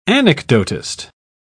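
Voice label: "anecdotist", British English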